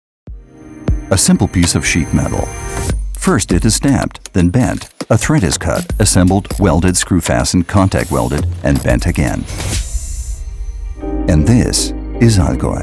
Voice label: imagefilm industry eng; bihler

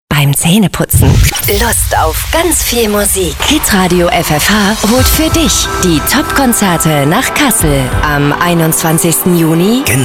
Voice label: station voice

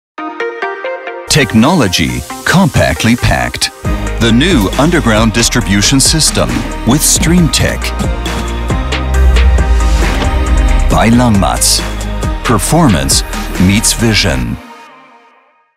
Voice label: industrial image film eng; langmatz

